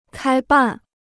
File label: "开办", Chinese